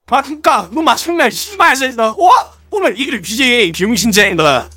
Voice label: jeers; voice packs; scout